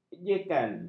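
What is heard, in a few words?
"ejekan", Malay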